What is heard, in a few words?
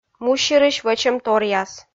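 "мусирысь вӧчӧмторъяс", Komi, female; kpv audio dict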